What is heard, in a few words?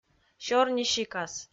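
"сёрнисикас" Komi, female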